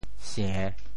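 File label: "sie~3", Teochew; 潮州; 白; xiàng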